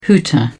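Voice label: "hooter", British English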